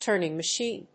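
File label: "turning machine", English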